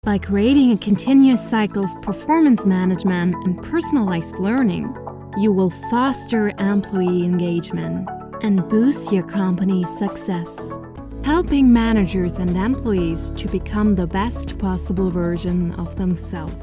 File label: American British English